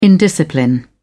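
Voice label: "indiscipline", British English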